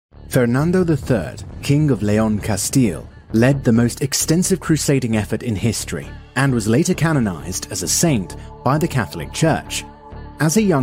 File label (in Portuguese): Ingles; locutores nativos